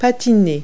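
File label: "skate", verb; Multi-Lingual Talking Picture Dictionary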